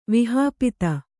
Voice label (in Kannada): "ವಿಹಾಪಿತ", Kannada